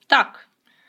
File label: dialogue, Ukrainian